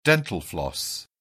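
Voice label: "dental floss", British English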